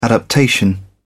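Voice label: "adaptation", English, British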